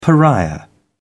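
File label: "pariah", British English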